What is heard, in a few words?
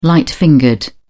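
"light-fingered", English, British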